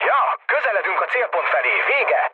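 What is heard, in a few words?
chat, speech hungarian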